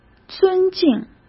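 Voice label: "尊敬", Mandarin Chinese; chinese-flashcards; hsk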